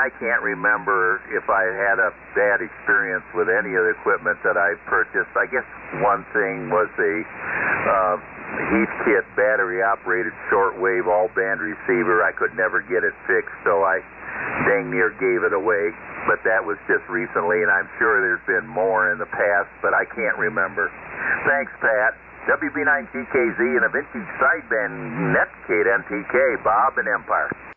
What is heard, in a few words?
Collins 32S-1 75S-1 and SB-200 Amp; Empire,MI.